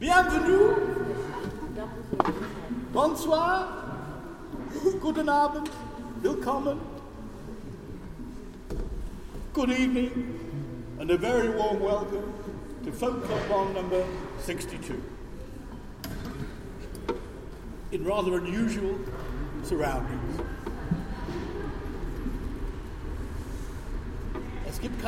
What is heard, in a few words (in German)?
Ansage